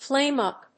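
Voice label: "flame up", English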